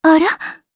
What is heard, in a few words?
Vox, jp; kingsraid